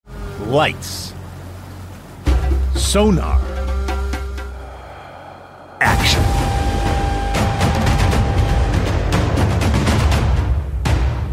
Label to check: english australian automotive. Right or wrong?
Wrong